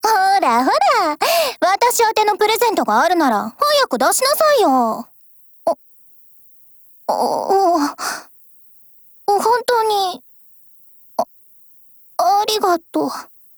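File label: Christmas, Japanese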